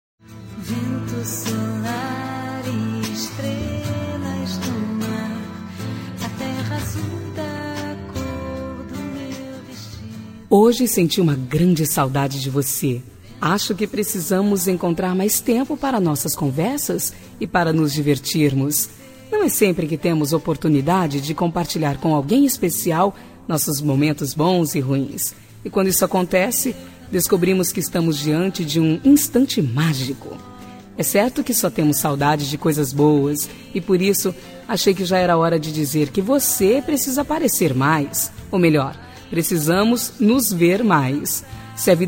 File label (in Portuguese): Amizade Com Saudades, F